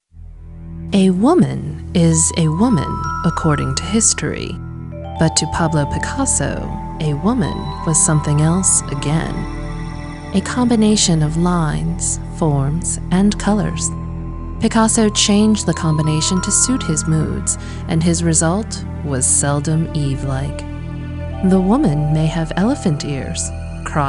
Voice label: narration